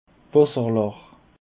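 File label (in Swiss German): "wasser lach", Alsatian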